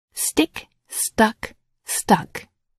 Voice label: "stick", English